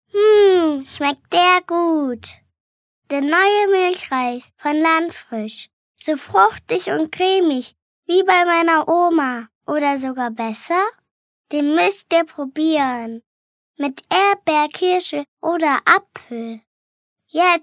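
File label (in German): advert, Kindlich; Landfrisch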